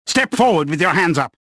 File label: suspicious; XBOX